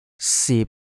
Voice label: "sip3", cantonese